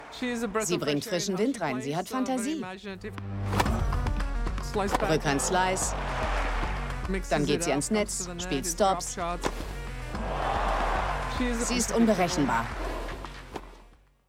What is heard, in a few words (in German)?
Voice-Over Sprecherin; analytisch & klar